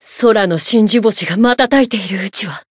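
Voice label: Voice variable chant, Japanese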